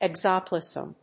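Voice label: "exoplasm", English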